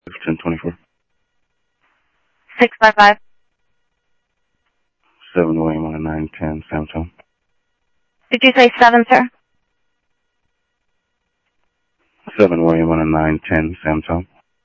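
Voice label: Sheriff East County, scanner audio trunk; MCSO - EAST; South Scottsdale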